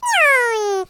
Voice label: voiceclip sad